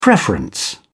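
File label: "preference", English, British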